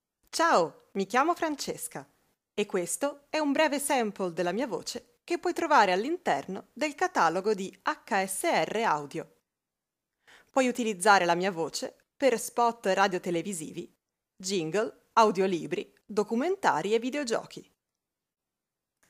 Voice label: italiano